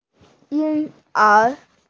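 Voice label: "ying1er2", Chinese, female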